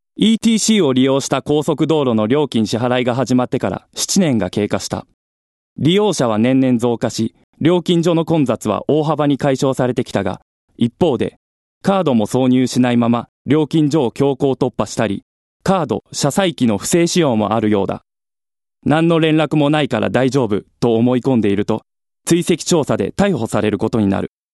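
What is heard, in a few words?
male voice, narration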